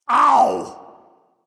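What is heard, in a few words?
ouch, dragon; fafnir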